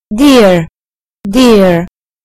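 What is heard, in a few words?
"deer", British English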